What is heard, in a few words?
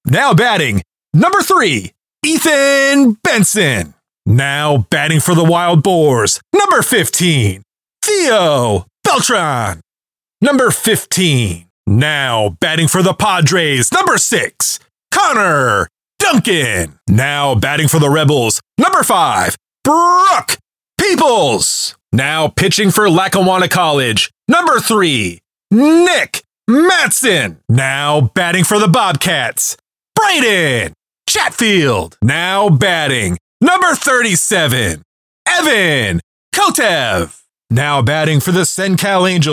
sports intros walk up voice only